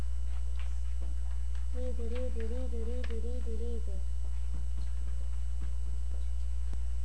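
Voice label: "lead", spoken word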